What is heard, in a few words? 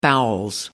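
"bowels", English, British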